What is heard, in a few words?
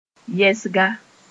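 "yɛsga", Mossi; position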